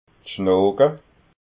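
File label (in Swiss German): "schnooga", Alsatian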